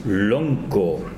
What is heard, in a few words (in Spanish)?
"cabeza", tsesungun